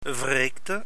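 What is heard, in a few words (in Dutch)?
"wreekte", Dutch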